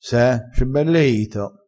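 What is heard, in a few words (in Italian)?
"c e bell e ito", dialettale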